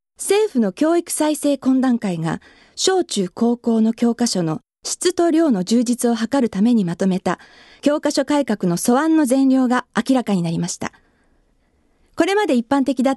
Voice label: female voice

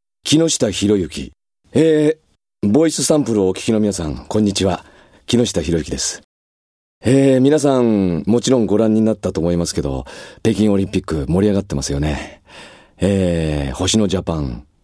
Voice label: freetalk, male voice

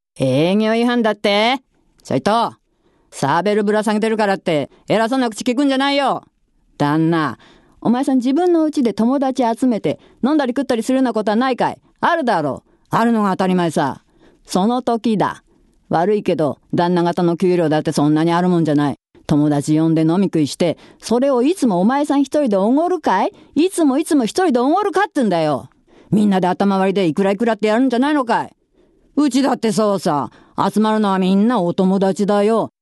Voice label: female voice

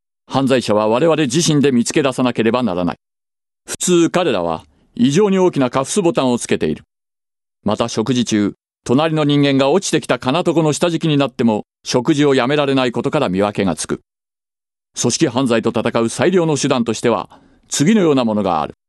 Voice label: male voice

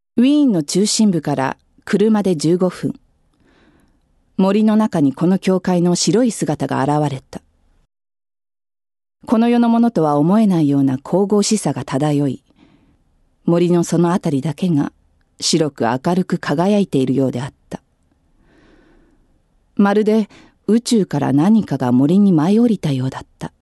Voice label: female voice

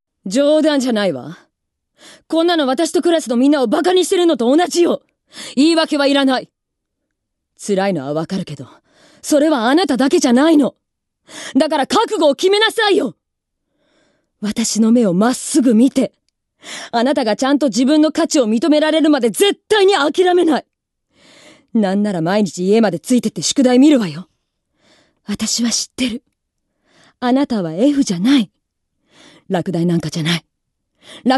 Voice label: female voice; セリフ

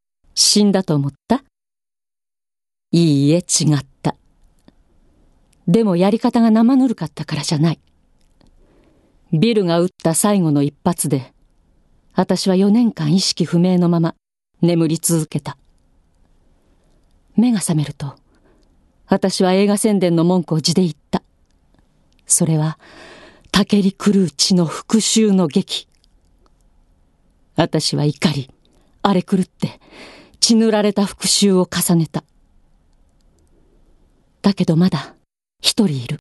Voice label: female voice

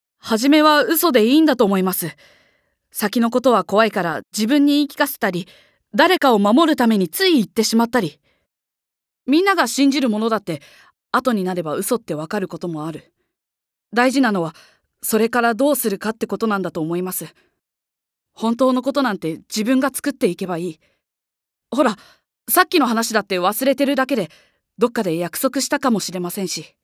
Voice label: female voice

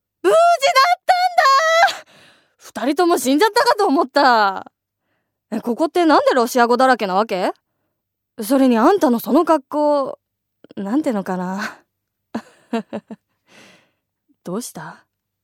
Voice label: female voice

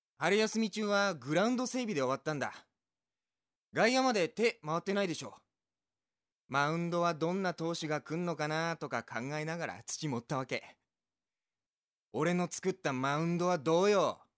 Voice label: male voice

